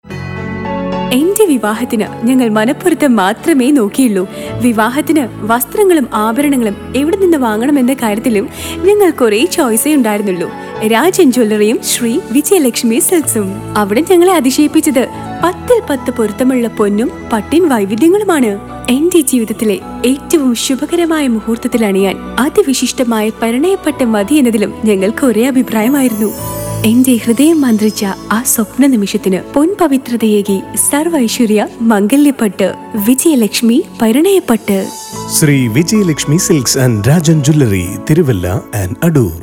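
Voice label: TVC malayalam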